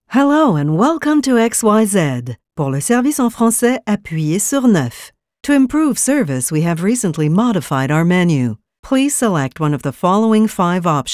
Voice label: welcome to, ivr